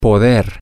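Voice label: Spanish, male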